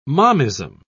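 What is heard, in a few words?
"momism", British English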